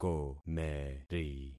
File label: "komeri", concat syllables; Affixing experiment